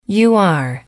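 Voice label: "you are formal permanent", English, female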